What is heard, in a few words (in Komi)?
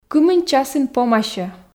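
кымын часын помасьӧ, Komi, female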